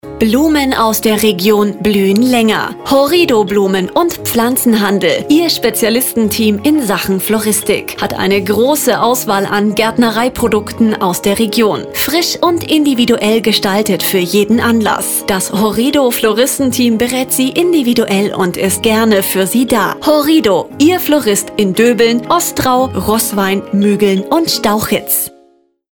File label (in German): Radio POS Sprecher